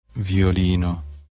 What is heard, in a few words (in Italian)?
"violino", parola